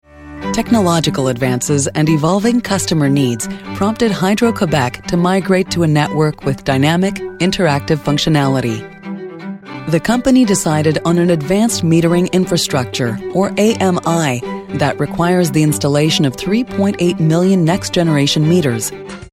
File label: US corporate narration